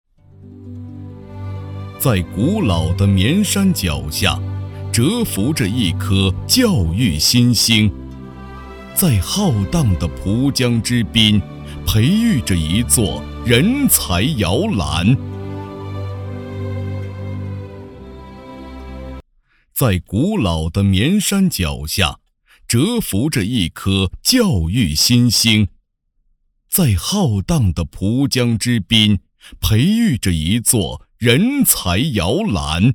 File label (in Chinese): 大气宣传片; 绵阳博美实验高级中学